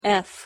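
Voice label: "f", English; pronunciation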